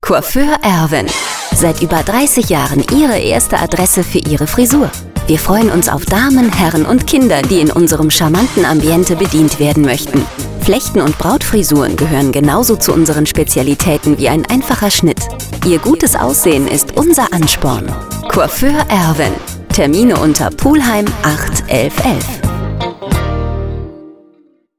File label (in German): Spot Coiffeur